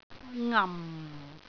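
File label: "ngam4", Cantonese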